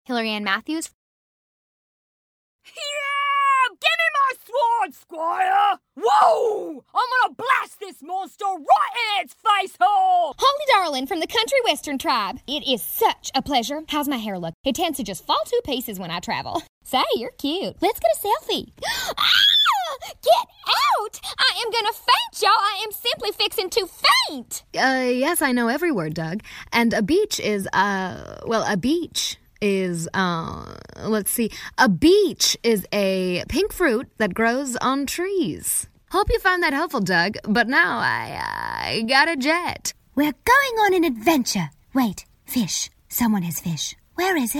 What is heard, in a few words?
animation; Innovative LA